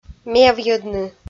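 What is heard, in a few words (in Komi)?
"мевйӧдны", Komi-Zyrian, female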